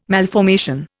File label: "malformation", Indian